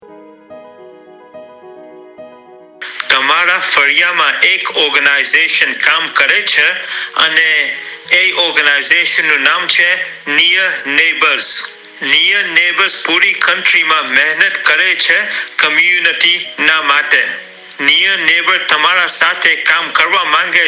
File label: Gujarati